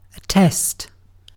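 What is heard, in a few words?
"test", British English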